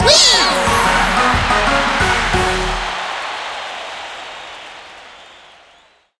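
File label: pvp win effect, Japanese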